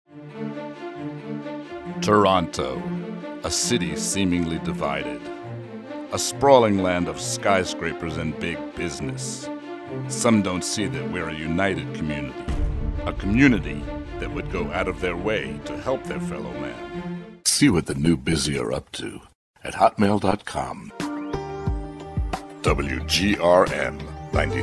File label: commercial